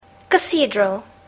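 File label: "cathedral", English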